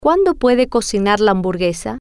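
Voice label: Cuando Puede Cocinar La Hamburguesa, Spanish, female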